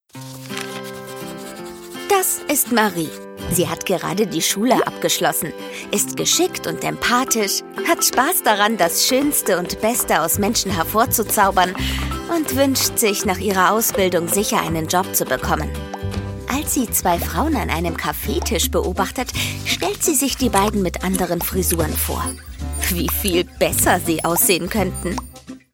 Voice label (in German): Erklaerfilm; Friseurausbildung